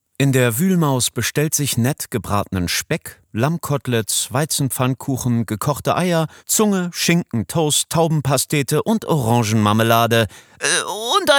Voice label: Hörbuch historisch; Muttersprache Deutsch

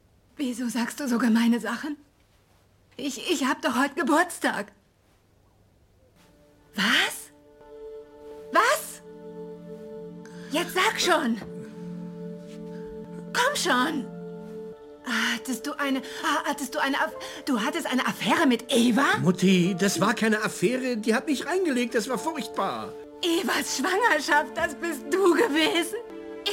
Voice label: synchron drama

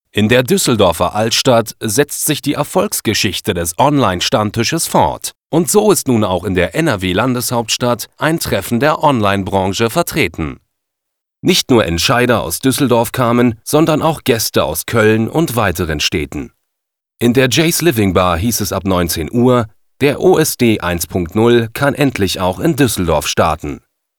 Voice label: Imagefilm; wsw

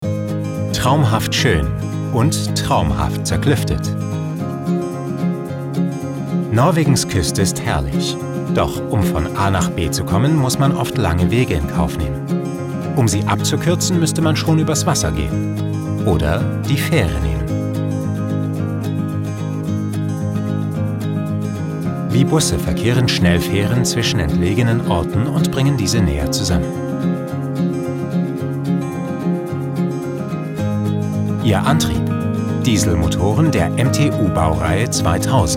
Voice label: imagefilm deutsch

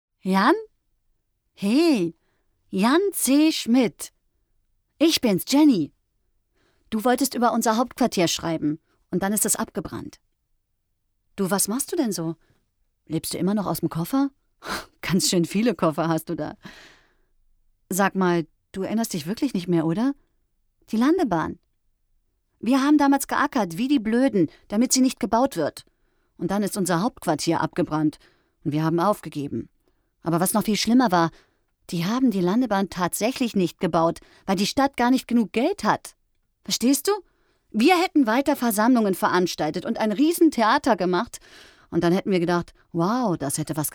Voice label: sprachdemo

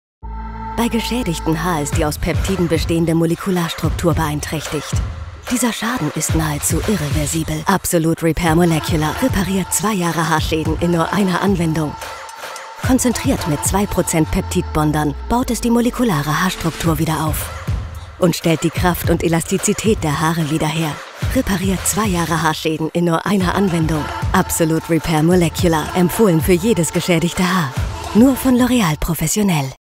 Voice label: webspot; loreal absolut repair molecular

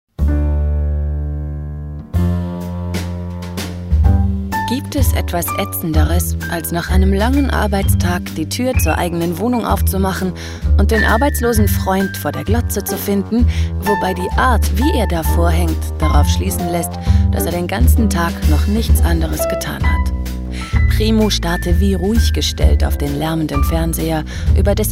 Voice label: hoerbuch

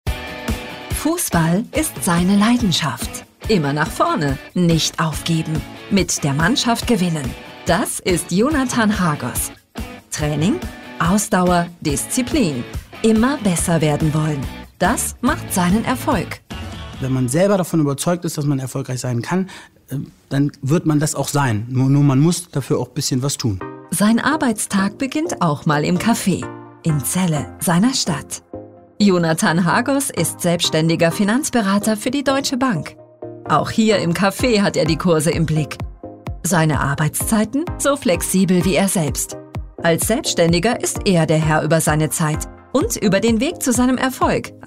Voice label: imagefilm praesent charmant; deutsche bank

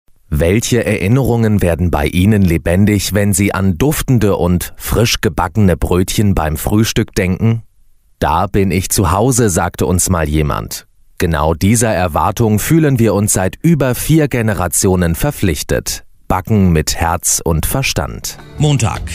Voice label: image filme

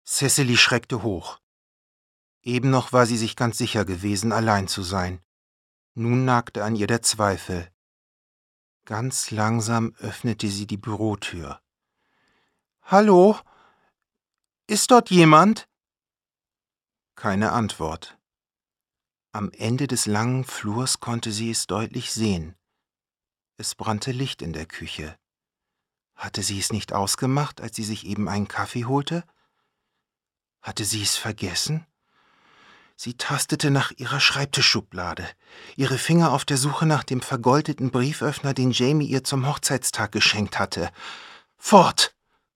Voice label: krimi erzaehler

